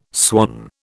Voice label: "swan", British

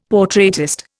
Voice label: "portraitist", Indian; Dictionary

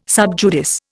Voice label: "sub judice", Indian

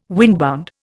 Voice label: "windbound", Indian

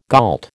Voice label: "gault", American

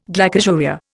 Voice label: "glycosuria", Indian

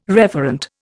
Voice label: "referent", Indian

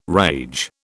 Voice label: "rage", British

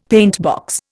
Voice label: "paintbox", Indian; Dictionary

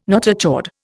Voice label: "notochord", Indian

